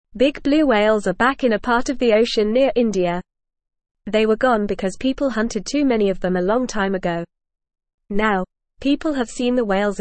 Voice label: English Lower Intermediate FAST Reading; Blue Whales Return to the Seychelles a Safe Home

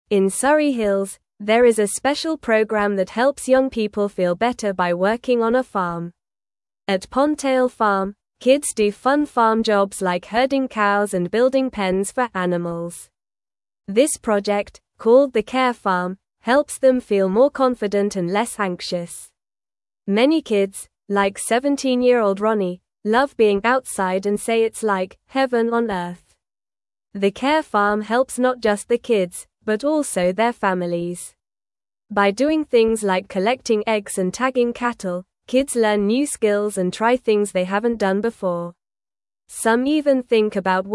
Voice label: English Lower Intermediate NORMAL Reading; English Newsroom; Happy Kids at Pondtail Farm with Animals

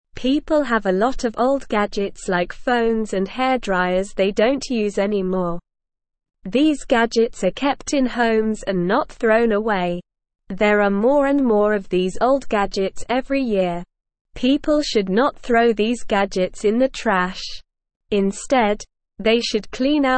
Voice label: English Beginner SLOW Reading; Recycle Old Gadgets to Help the Earth

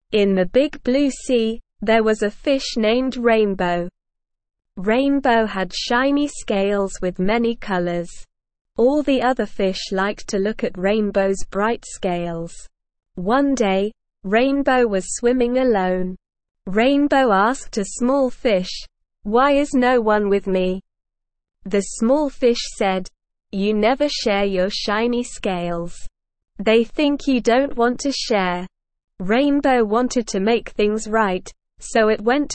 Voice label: ESL Short Stories for Kids Beginner SLOW Reading; The Rainbow Fishs Journey

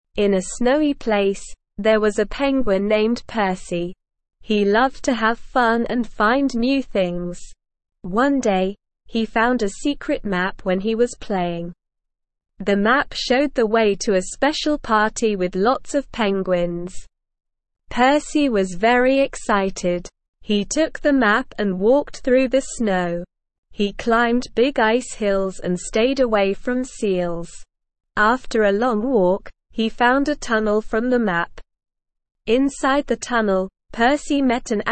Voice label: ESL Short Stories for Kids Beginner SLOW Reading; The Penguin Parade

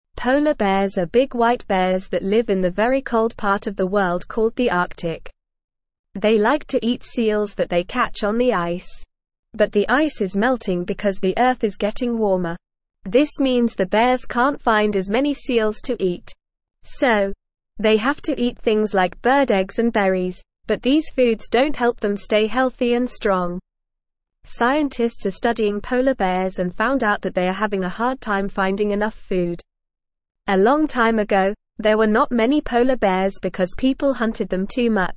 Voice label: English Beginner NORMAL Reading; Polar Bears Struggle to Find Enough Food

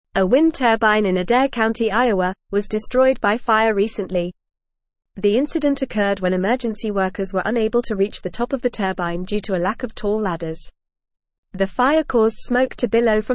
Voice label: English Newsroom Advanced FAST Reading; Fire Destroys Wind Turbine Due to Lack of Equipment

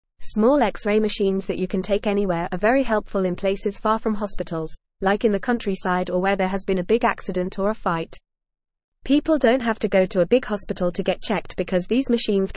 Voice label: English Lower Intermediate FAST Reading; Special Cameras Help Doctors See Inside Your Body; English Newsroom

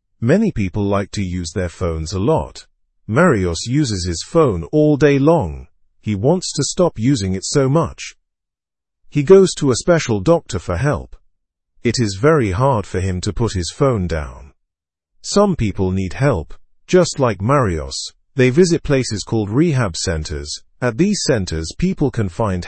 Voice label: learn english through news beginner normal; phone addiction looks like a real problem

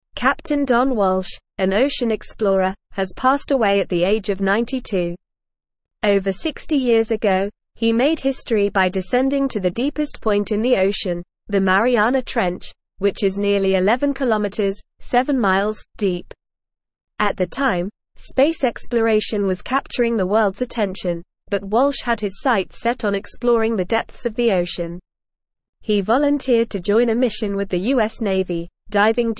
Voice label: English Newsroom Advanced NORMAL Reading; Captain Don Walsh Ocean Explorer and Deep Sea Pioneer

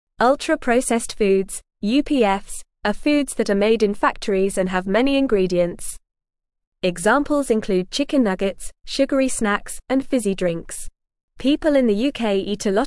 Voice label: English Lower Intermediate FAST Reading; Are Ultra Processed Foods Bad for Our Health; English Newsroom

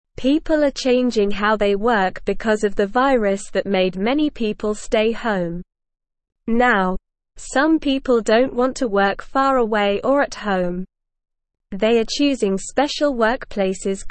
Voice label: English Lower Intermediate SLOW Reading; People like working close to home in co working spa; English Newsroom

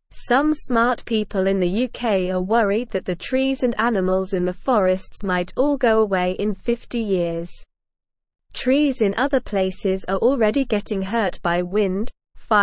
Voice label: English Newsroom Beginner SLOW Reading; Smart People Want to Save the Forests

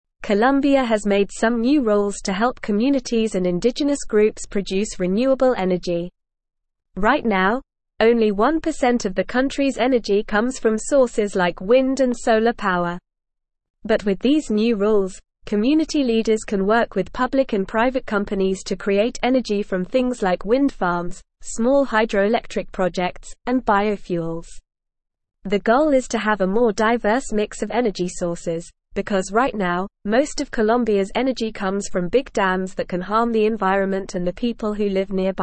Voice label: English Upper Intermediate NORMAL Reading; English Newsroom

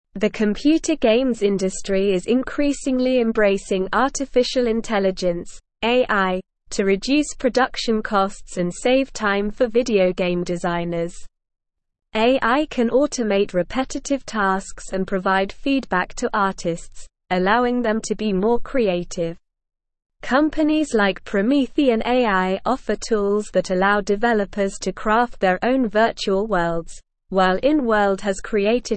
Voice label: English SLOW Reading; AI Revolutionizing Computer Games Cost Reduction Creativity E

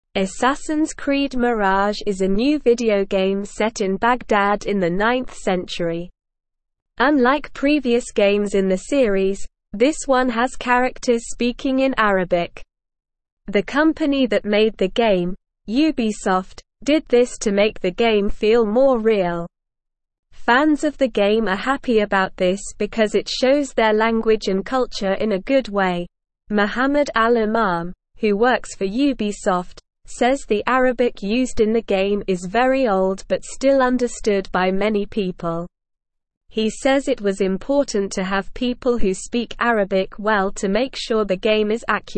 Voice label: English Lower Intermediate SLOW Reading; New Video Game Shows Arabic Language and Old Baghda; English Newsroom

